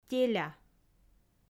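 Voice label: "теля", Komi, female; audio dict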